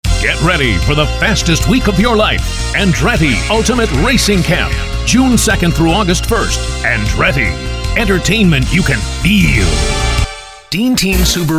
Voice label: commercial cable spots; demos